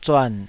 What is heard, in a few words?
"zuan3", taiwanese